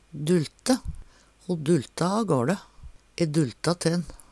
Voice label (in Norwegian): "dulte", Numedalsmål